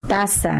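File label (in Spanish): "taza" Spanish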